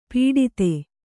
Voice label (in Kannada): "ಪುಟಿತ", Kannada